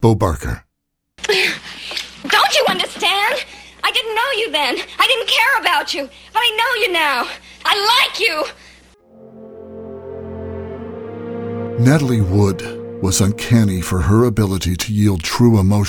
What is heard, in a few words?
TV Film Documentary